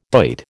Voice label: "doit", American

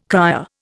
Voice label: "cryer", Indian

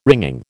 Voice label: "wringing", American

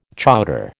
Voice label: "chowder", American

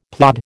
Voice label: "plod", American

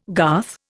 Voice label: "garth", Indian

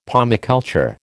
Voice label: "pomiculture", American

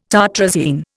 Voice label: "tartrazine", Indian

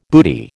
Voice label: "booty", American

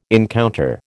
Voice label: "encounter", American

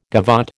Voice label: "gavotte", American

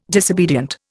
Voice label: "disobedient", Indian

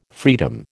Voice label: "freedom", American

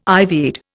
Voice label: "ivied", Indian

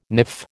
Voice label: "niff", American

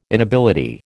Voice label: "inability", American

